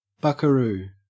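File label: "buckaroo", Australian English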